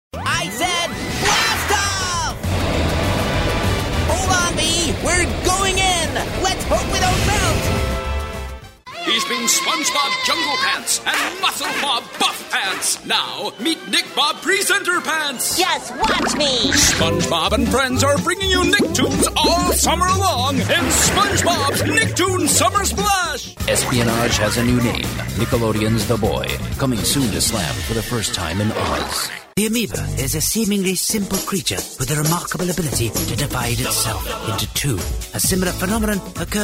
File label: animation